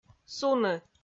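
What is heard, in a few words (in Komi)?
"суны", Komi, female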